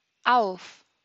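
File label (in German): "auf", German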